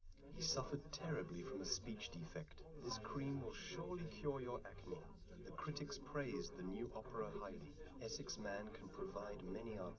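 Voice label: male3 live recording 130ms 1m, underdetermined; SiSEC11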